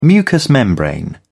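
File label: "mucous membrane", British English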